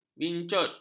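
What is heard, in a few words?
"bincut", Malay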